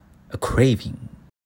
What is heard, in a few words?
Voice Over